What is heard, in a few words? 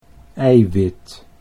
"eiwit", Dutch